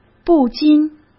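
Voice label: "不禁", Mandarin Chinese; chinese flashcards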